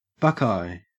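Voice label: "buckeye", Australian English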